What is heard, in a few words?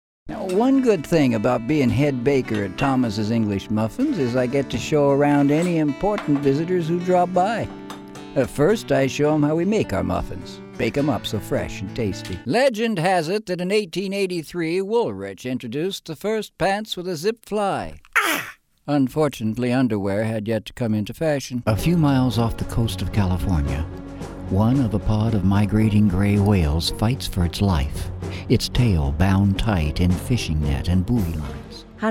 commercials